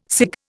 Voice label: "cig", Indian